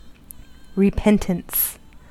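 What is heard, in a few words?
"repentance", US English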